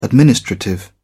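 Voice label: "administrative", British English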